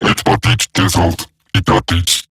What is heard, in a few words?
Patrol Robot Large, Barks, Battle